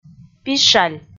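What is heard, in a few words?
"пищаль", Komi, female; audio dict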